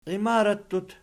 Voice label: "iimarattut", Archi

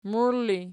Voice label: "muilli", Archi

